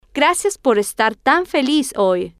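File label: gracias Por Estar Tan Feliz Hoy, Spanish, female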